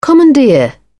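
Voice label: "commandeer", English, British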